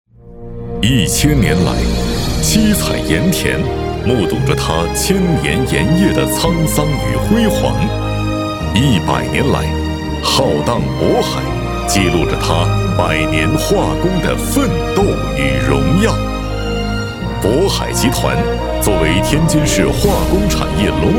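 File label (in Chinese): 专题大气沉稳宣传片; 渤化集团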